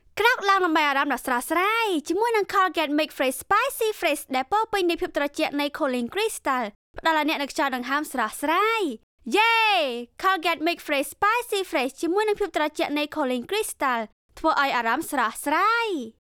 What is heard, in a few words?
TVC; Cambodia